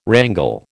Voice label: "wrangle", American

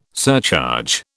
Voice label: "surcharge", British

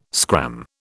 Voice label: "scram", British

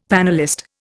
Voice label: "panellist", Indian; Dictionary

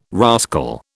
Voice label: "rascal", British

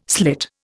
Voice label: "slit", Indian

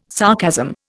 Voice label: "sarcasm", Indian

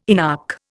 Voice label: "inarch", Indian

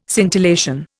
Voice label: "scintillation", Indian

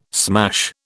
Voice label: "smash", British

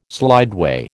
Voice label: "slideway", American

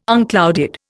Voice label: "unclouded", Indian

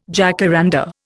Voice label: "jacaranda", Indian

